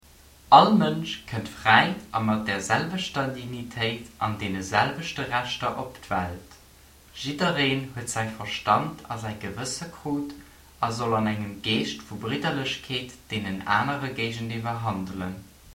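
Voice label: udhr lb, Luxembourgish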